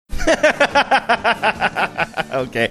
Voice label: laughing saying okay